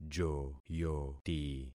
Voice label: "joyoti", concat syllables; Affixing experiment

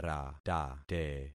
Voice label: "ratate", concat syllables; Affixing experiment

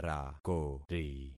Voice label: "rakori", concat syllables; Affixing experiment

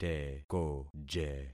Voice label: "tekoje", concat syllables; Affixing experiment

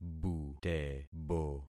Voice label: "butebo", concat syllables; Affixing experiment

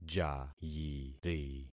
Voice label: "jayiri", concat syllables; Affixing experiment

